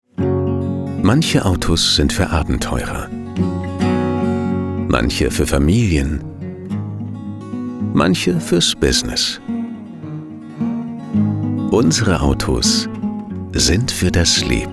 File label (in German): Werbung Auto